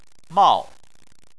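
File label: "mao" fourth tone, Chinese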